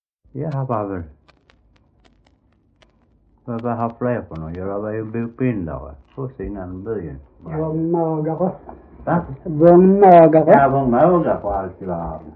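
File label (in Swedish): Äspö Skåne, Svenska sagor dialekter; Folkminnen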